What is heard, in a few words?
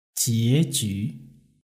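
"jieju" Chinese word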